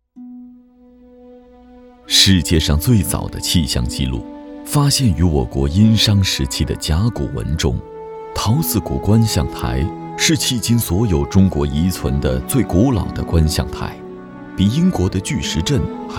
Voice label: 男 纪录片; 人文历史; 文化韵味纪录片

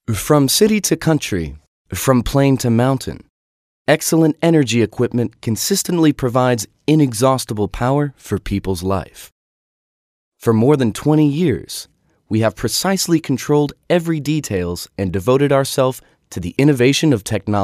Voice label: 外籍男英 外语 美式英语 宣传片 年轻

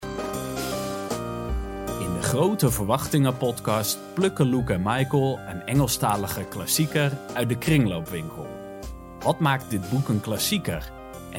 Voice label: 荷兰语男 外语 小语种

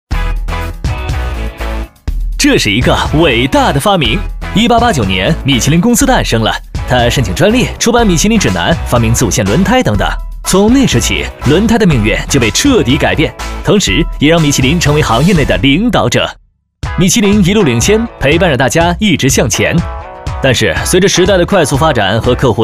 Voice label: 男国 宣传片 病毒; 米其林MG

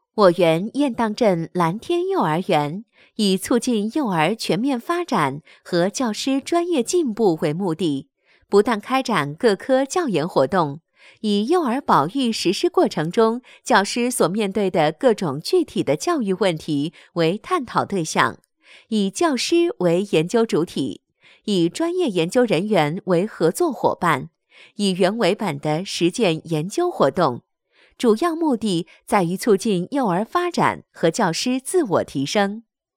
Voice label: 专题 学校 幼儿园 温柔, 女; 雁荡镇蓝天幼儿园

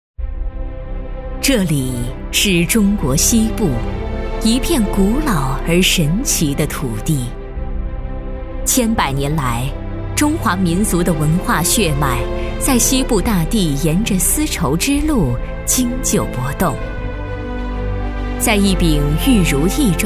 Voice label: 专题 政府 甘肃 沉稳, 女

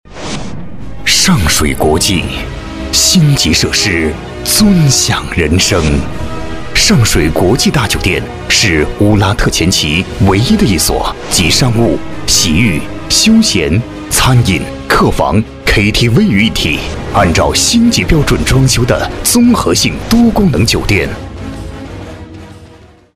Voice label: 广告, 地产, 大气; 尚水国际

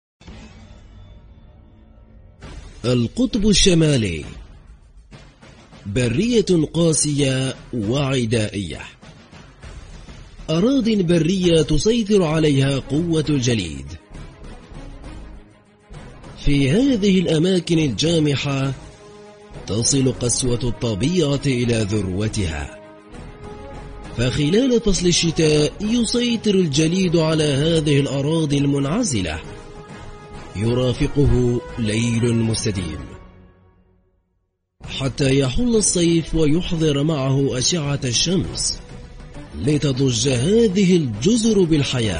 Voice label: 阿拉伯语男 外语 小语种

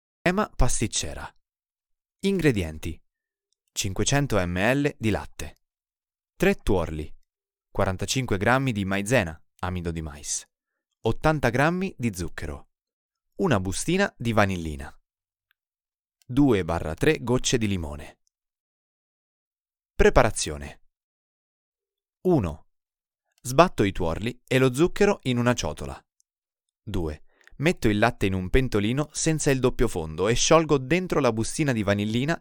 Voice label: 意大利语男 外语 小语种

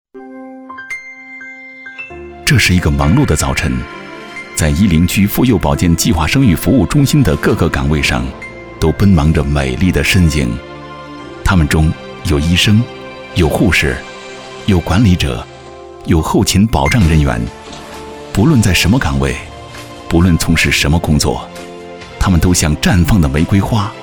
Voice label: 男 专题; 最美白衣天使

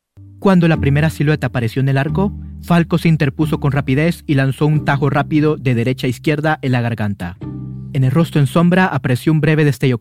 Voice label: 西班牙语男, 外语; 小语种

